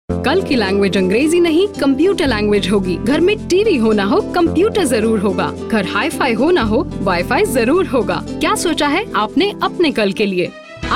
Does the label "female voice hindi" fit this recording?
Yes